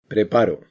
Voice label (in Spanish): acento lexical preparo, castilla; spansk; fonetica; consonantes-castilla